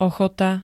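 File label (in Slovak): "ochota", Slovak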